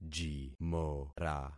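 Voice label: "jimora", concatenated syllables; Affixing experiment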